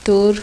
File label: apical dental, temne